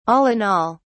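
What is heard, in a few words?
"all-in-all", English, British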